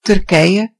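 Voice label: "turkey", Dutch; gcompris-data; geography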